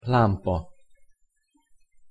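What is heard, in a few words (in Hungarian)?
"lampa" Hungarian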